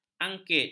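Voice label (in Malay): "angkit", Malay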